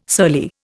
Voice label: "surly", Indian